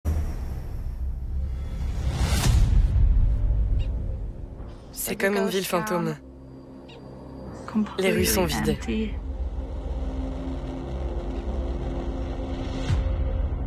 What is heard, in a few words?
Voice Over